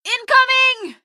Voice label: incoming, female; Garry's Mod; hl2; canals

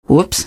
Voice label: "whoops", female; npc; Garry's Mod; hl2

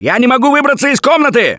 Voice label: call for rescue, russian; Gambler

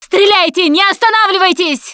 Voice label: battlecry tank, russian, Survivor Voice Producer

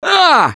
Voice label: Hurt Major, russian; Gambler

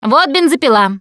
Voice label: melee weapons, russian, Survivor Voice Producer

